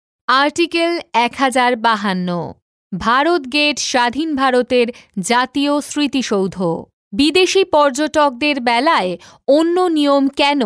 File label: E-Learning Sample